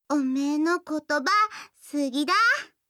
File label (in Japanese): 好きです 秋田; やよい